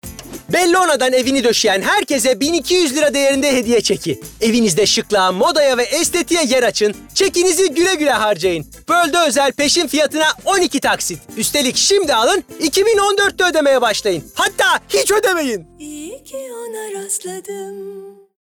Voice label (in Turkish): Promosyon